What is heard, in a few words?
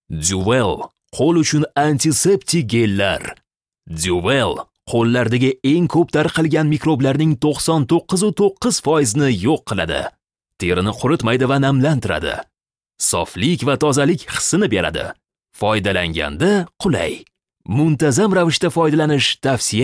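Uzbek commercial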